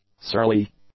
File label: "surly", English; adjectives